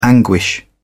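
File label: "anguish", British English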